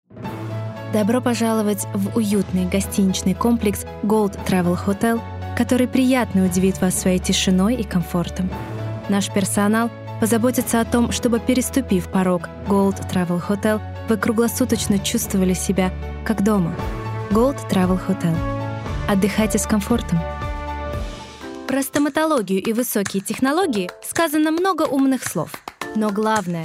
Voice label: female russian commercial compilation

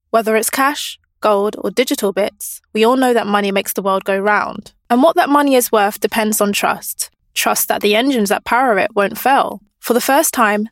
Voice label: female English documentary

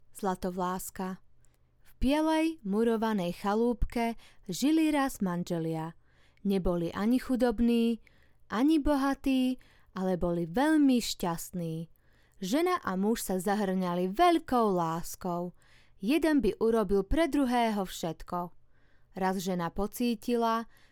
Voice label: female slovakian narration

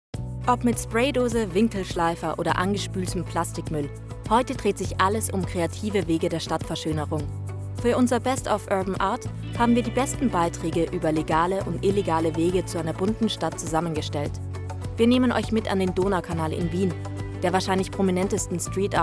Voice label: female austrian and german german documentary

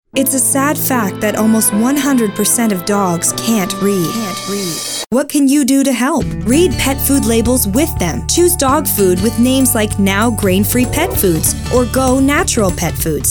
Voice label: female english canadian accent commercial

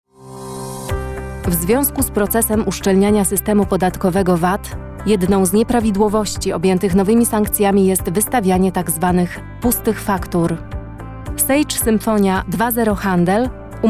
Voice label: female, Polish corporate compilation

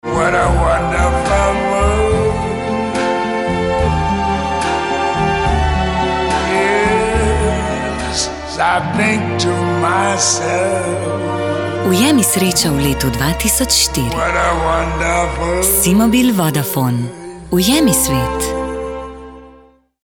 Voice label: female, Slovenian commercial; si mobil

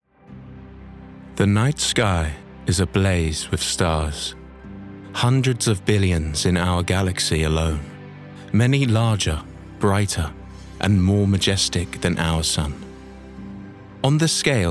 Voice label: male english the planets documentary narration

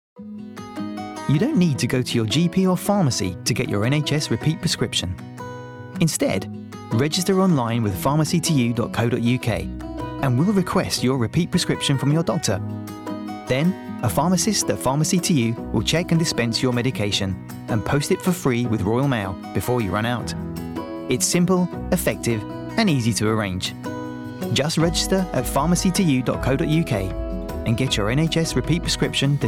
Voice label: male english commercial; pharmacy2u; southern and northern england

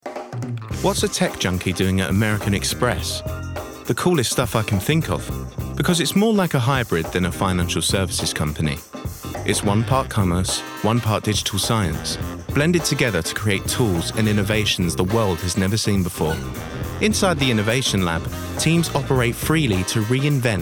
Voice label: male English corporate compilation

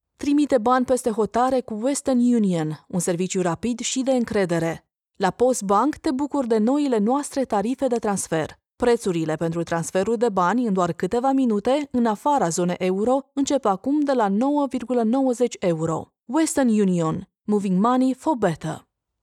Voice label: female, Romanian TV commercial

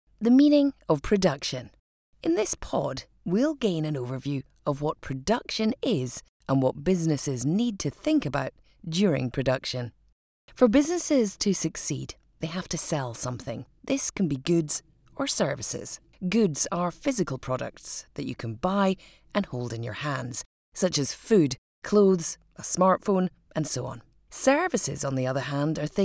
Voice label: female English Scottish e-learning; soundbite learning uk ltd

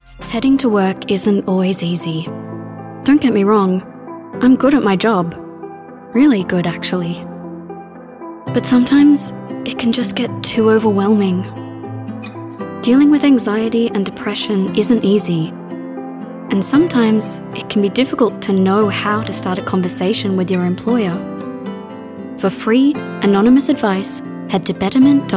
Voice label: female australian accent mental health; english; irish; Devine Voices

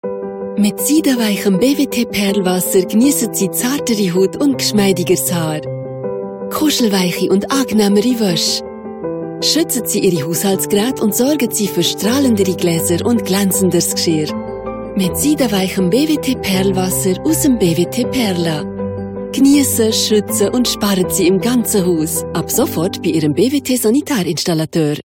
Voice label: female German Swiss German TV commercial; Devine Voices

